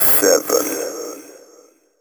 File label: techno male 140; Vocals